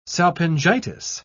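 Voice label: "salpingitis", British English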